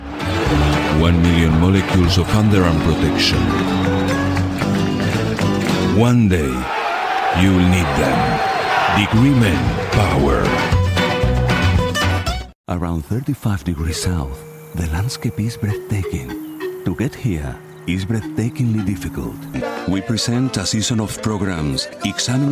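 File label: Spanish Europe Accented English; GoLocalise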